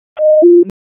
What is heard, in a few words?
PS TAC, p25; wake